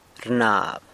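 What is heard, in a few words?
"rnab", San Lucas Quiaviní Zapotec; asks for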